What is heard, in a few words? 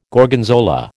"Gorgonzola", American